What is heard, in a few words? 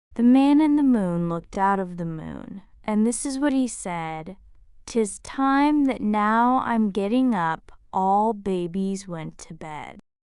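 Bedtime female US, classic rhymes; Man in the Moon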